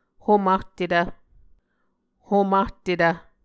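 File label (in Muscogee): "homahtetv", Muscogee word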